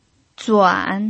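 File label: "zuan3", Mandarin Chinese pinyin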